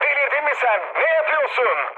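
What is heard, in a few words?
Turkish player killed ally, mood med, speech; War Thunder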